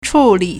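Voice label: "chǔlǐ", Chinese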